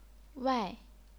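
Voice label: "wai", chinese pinyin, fourth tone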